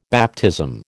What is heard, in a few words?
"baptism", American